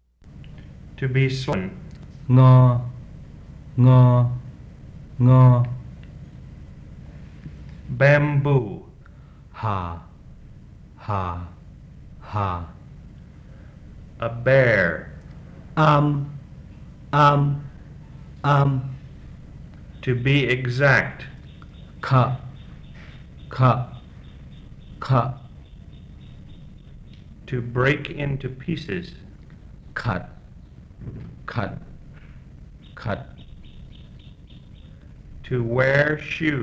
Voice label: Phunoi

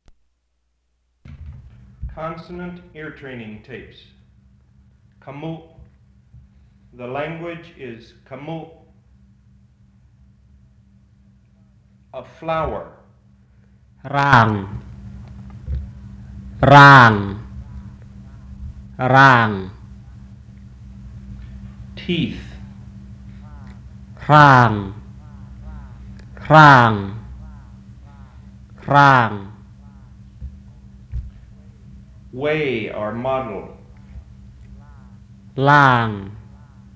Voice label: Khmu

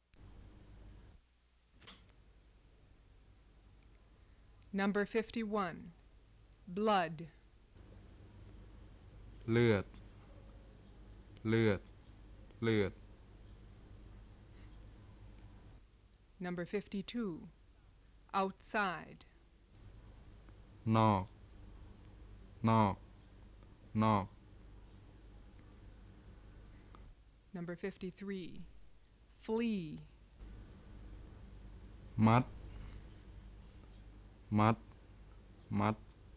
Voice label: Tai Dialects Nua Kham Muan

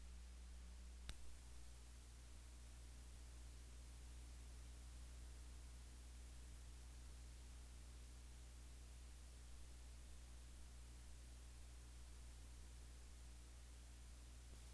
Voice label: Wa Word list

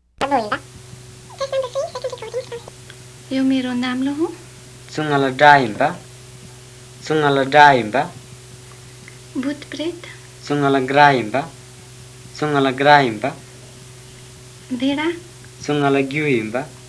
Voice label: Western Tamang

